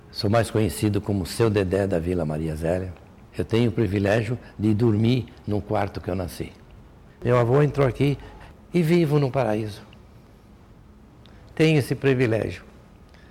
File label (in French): presentation